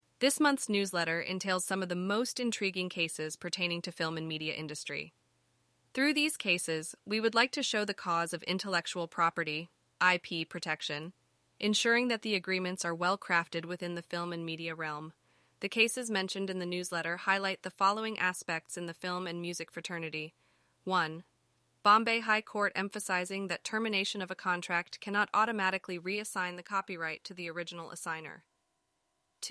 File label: synthesized audio